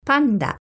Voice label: "panda", Japanese